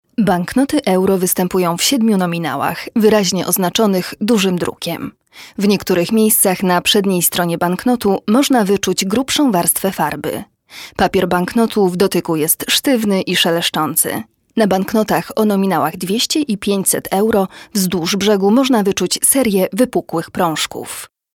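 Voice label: Polish corporate narration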